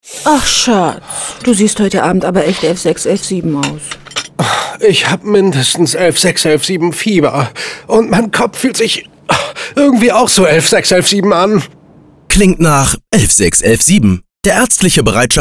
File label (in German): Radiospot; kvhessen; AEBD-KAMPAGNE 116117